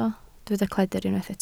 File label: "cledr", Welsh